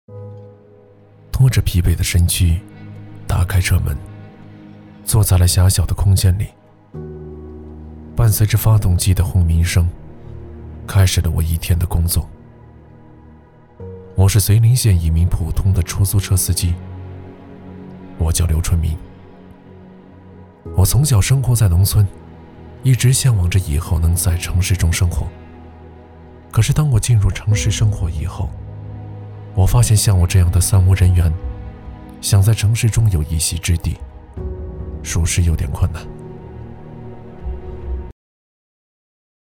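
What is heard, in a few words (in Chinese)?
广告 无人机, 男 中年 普通话; 大疆无人机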